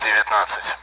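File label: Speech Sound Russian Common AWACS Numbers; DCS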